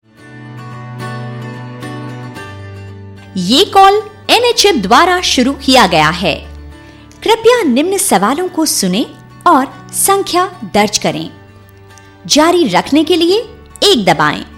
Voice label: Hindi IVR